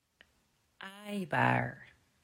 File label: "Aaibaar", Dutch